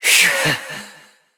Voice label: Frustration; Evil Twin B; Warframe; Entrati Doppelganger; Battle Barks

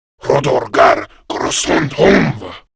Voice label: Attack Grineer Male; Warframe; Battle Barks

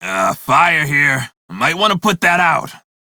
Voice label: crew see fire, male; Dialog; en; Warframe; Railjack Crew; Red Veil

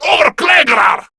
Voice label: Surprised, Male; Warframe; Grineer Male B; Battle Barks

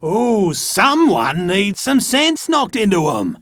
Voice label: Enemy Spotted Chipper; Dialog; Barks; Battle; Warframe

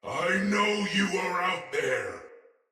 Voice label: Sight Lost Shout, Barks Battle Soldier; Sinecure Soldier

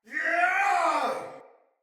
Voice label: Battle Cry Soldier Male; Barks; Dialog; Sinecure Soldier Male A

